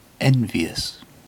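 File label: "envious", British English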